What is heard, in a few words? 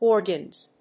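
"Organs", English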